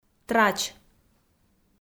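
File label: "трач", Komi, female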